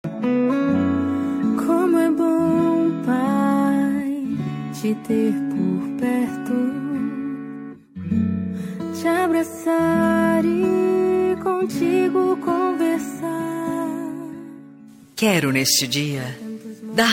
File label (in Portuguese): dia dos pais feminina gospel; evangelico voz